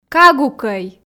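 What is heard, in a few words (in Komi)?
"кагукӧй", Komi, female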